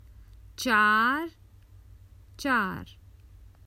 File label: Common Hindi Phrases for travel Four